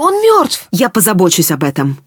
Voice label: threat distant, woman; Stalker Anomaly